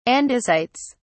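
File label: "andesites", English, British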